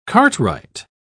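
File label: "cartwright", English, British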